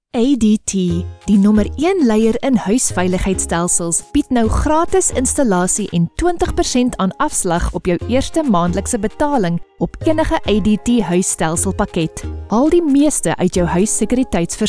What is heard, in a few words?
afrikaans commercial; Südafrika